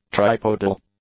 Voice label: "tripodal", English; adjectives

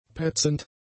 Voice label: "petzend", German; adjectives

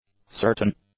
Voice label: "certain", English; adjectives

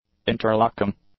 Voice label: "interlocking", English; adjectives

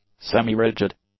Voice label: "semi-rigid", English; adjectives

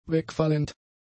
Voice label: "wegfallend", German; adjectives

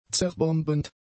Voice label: "zerbombend", German; adjectives

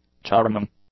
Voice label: "charming", English; adjectives

